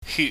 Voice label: "hyut", chinese

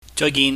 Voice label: "joigin", Cantonese

